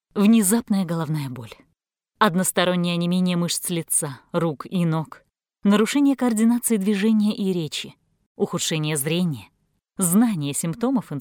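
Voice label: Russian Russia female sales; russian voice over talent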